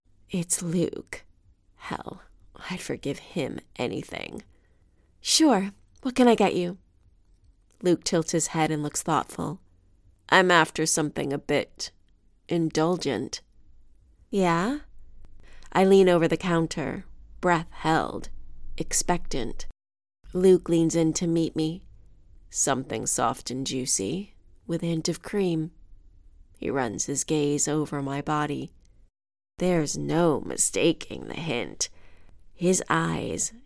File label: Erotic Sample